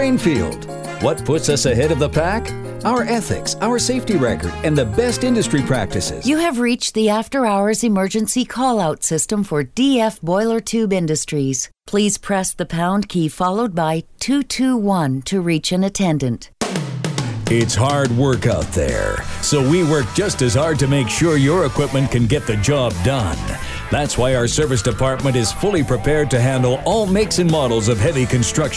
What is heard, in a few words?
On Hold Industrial